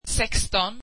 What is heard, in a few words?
swedish sixteen